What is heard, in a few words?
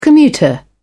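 "commuter", British English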